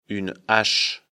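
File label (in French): "hache", French; afrikalan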